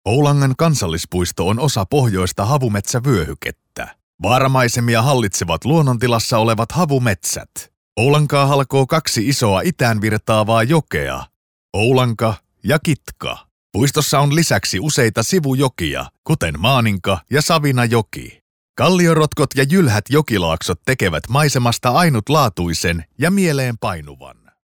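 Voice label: Finnish, male, narration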